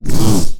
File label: Alien Scientist; little robot sound factory